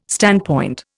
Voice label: "standpoint", Indian

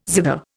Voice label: "zither", Indian

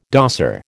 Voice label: "dosser", American

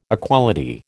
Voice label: "equality", American

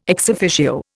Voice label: "ex officio", Indian

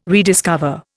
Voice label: "rediscover", Indian

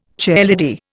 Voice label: "chairlady", Indian

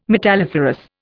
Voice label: "metalliferous", Indian

